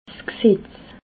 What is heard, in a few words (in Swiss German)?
"gsetz", alsace